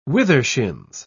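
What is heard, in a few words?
"withershins", English, British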